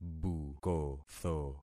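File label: "bukotho", concatenated syllables; Affixing experiment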